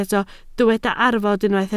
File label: "arfod", Welsh